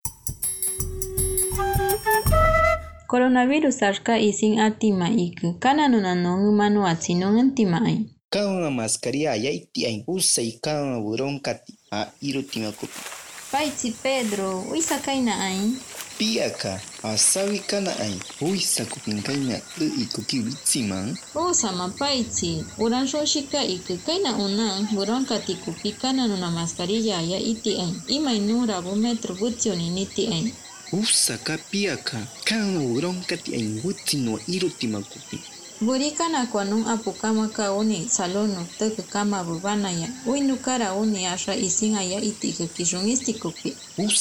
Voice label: kakataibo uso de mascarillas 2 voces, spot de radio; medidas de prevencion

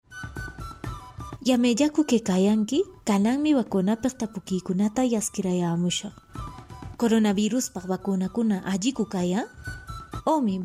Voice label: quechua ancash microprograma preguntas y respuestas, spot de radio; vacuna contra el coronavirus

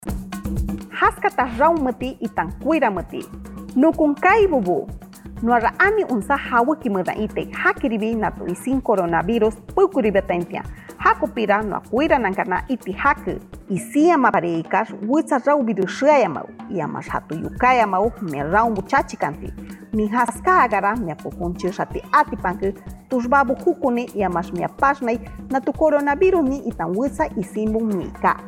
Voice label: shipibo konibo, spot de radio; tomar medicamentos con precaucion; sospecha o confirmacion de contagio

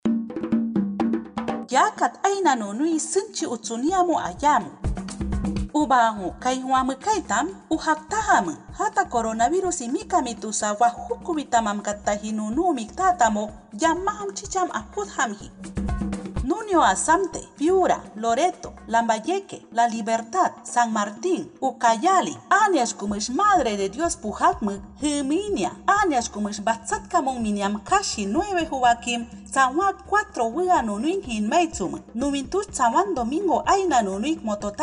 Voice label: awajun nuevas medidas, spot de radio; precauciones al salir de mi comunidad

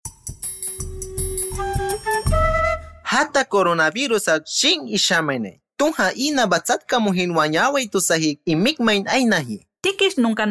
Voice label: awajun entrega de bienes y alimentos 2 voces, spot de radio; medidas de prevencion

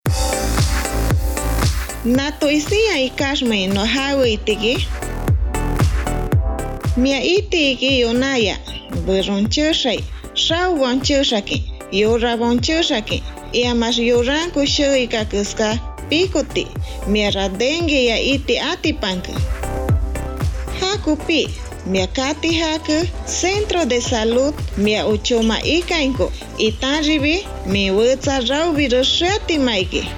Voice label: shipibo konibo, spot de radio; sintomas del dengue; sospecha o confirmacion de contagio

